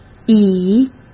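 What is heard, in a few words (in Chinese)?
"倚", Mandarin Chinese; hsk; chinese-flashcards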